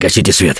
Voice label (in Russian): Kill That Light, left4dead2 russian, Survivor Voice; Gambler